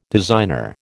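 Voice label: "designer", American English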